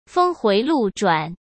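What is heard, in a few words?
"峰回路转", Mandarin Chinese; chinese-flashcards; hsk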